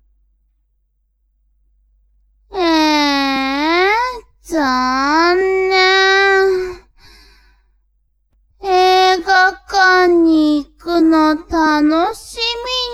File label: woman